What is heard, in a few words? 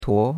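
"tuo2", Mandarin Chinese; Cours-de-Chinois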